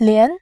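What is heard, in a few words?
"lian2", Mandarin Chinese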